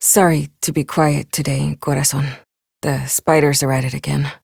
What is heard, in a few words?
Ambient Barks, en; Warframe; Mall Romance; Lettie